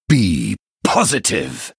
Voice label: Male San'layn Attack